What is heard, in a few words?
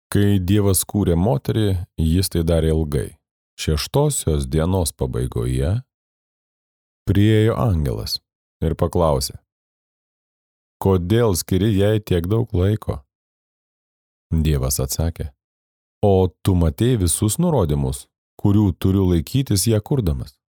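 Lithuanian, male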